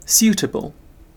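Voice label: "suitable", British English; pronunciations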